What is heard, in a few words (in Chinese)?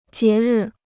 "节日", Chinese